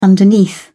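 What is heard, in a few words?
"underneath", English, British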